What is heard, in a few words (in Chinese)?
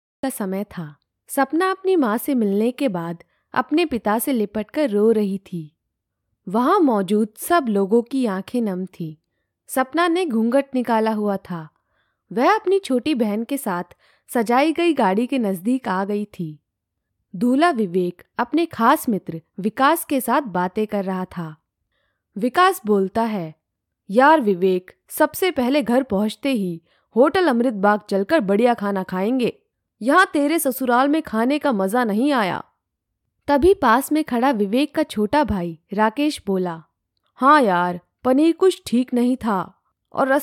印地语女 外语 小语种